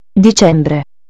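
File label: "dicembre", Italian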